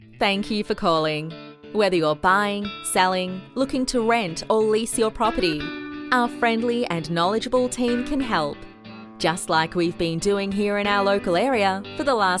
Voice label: On Hold, AU